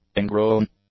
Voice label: "ingrown", English